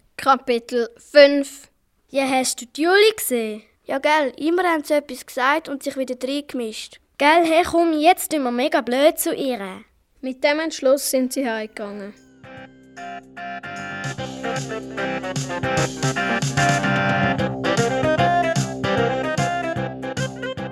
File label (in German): Hoerspiel Zickenkrieg; Minutengeschichten